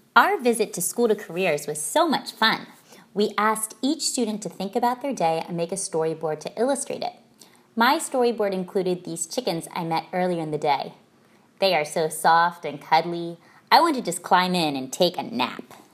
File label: narration